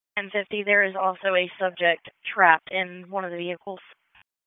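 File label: Police Northeast District Dispatch, p25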